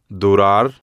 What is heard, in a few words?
"durar", Spanish